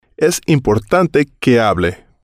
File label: es importante que hable, Spanish